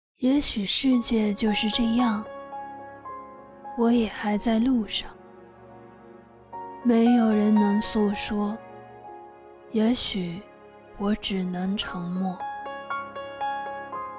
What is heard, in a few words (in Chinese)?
低沉磁性试音